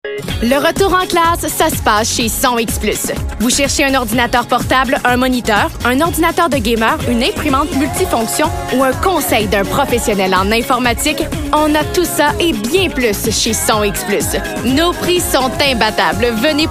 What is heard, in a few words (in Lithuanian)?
(MOT) Prancuzu balsas